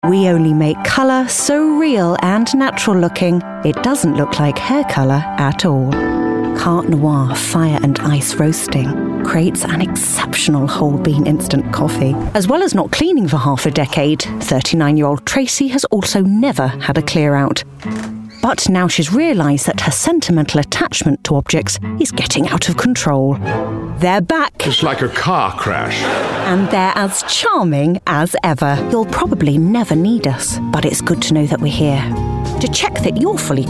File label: VoiceOver